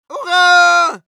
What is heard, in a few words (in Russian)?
battlecry, ru; УРА!!!; Голосовые команды медика